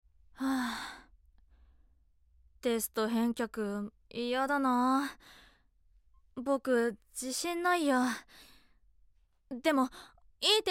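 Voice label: voice sample, boy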